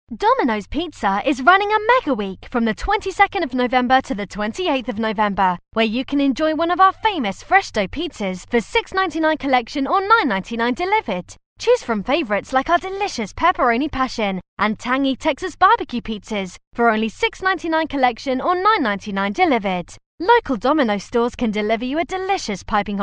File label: British F, British English